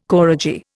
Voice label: "koradji", Indian